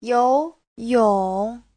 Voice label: "you2yong3", Chinese; 游泳 : bơi lội; Giáo trình Hán ngữ